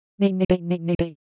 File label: Siwu Motion; ideophones